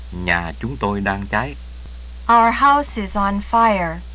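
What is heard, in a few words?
vietnamese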